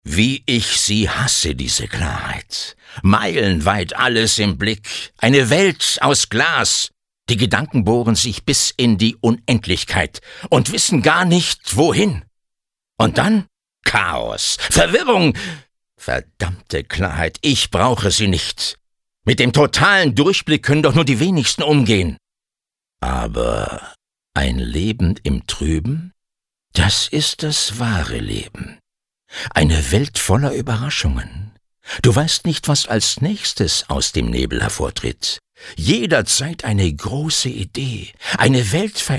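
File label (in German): acting, Dynamisch; Klarheit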